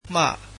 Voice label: from, burmese